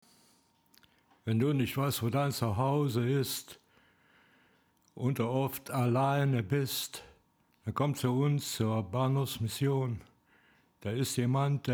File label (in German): Voice Rap mit; Audified